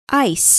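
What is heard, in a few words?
"ice", English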